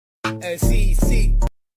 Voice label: "Si Si" Italian; meme